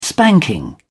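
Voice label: "spanking", English, British English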